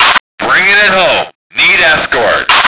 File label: male escort; lmctf